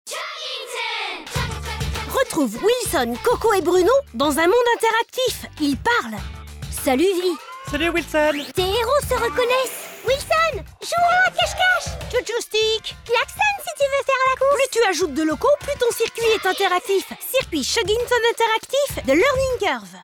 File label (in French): garçons - pub tv; Chuggington